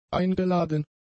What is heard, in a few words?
"eingeladen", German; adjectives